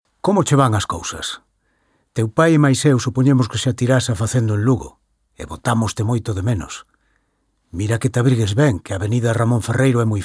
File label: Galician; VOICES